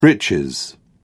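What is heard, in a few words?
"breeches", British English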